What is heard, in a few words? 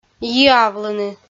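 "йиавлыны" Komi, female; audio dict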